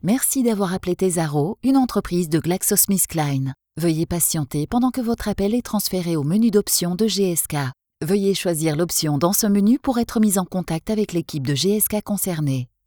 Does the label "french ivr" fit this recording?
Yes